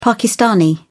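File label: "pakistani", British English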